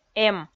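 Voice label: "эм", Komi, female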